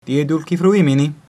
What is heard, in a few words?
have a nice day, latin